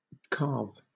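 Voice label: "calve" English, British accent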